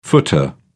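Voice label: "footer", British English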